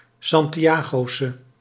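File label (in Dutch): "Santiagose", Dutch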